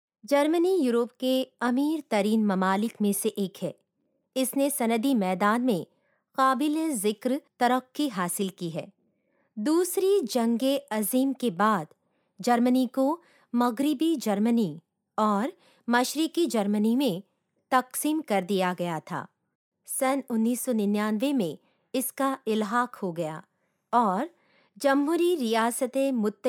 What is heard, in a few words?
Urdu Elearning